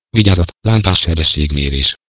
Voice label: Redlight Camera, voice male; GPS; HU; Igo 8